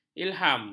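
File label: "ilham", Malay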